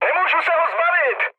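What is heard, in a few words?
aircraft damaged, Czech, mood med; War Thunder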